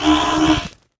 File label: Zombie